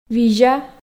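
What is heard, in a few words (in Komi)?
"визя", Komi, female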